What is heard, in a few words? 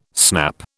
"snap", British